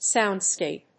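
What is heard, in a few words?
"soundscape", English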